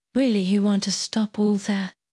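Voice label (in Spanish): Voice Acting es F, Spanish, female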